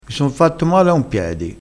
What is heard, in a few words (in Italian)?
"piedi", vocabolario dialettale